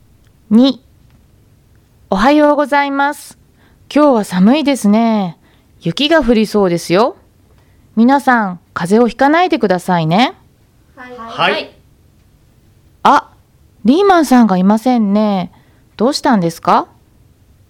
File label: Conversation; tobira